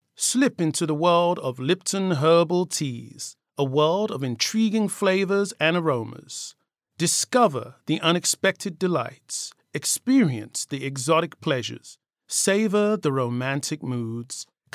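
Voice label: English, British, male; english british voice over talent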